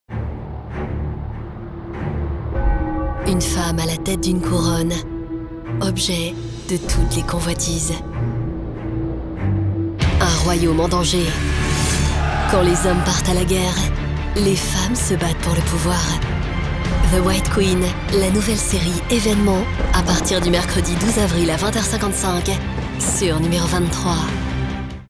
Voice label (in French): teaser évenement suspense impactante; THE WHITE QUEEN